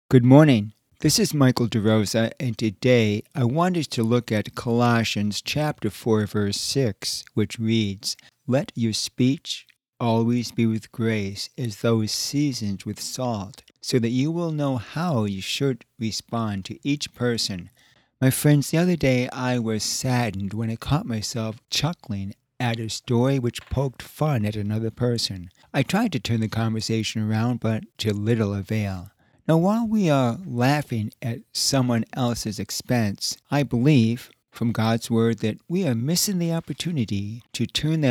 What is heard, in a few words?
Radio Sermonette; Colossians 4 6; Inappropriate Laughter; are you really having a good time